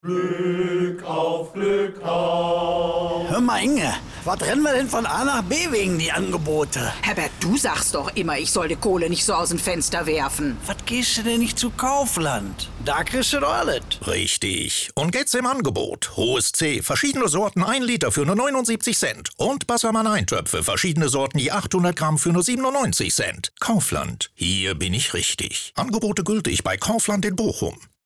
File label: spot; kaufland; German